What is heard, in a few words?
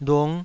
"lung5", Taiwanese syllable